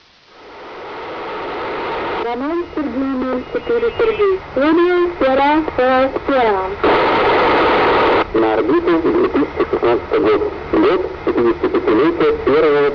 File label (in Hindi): hindi; tomsk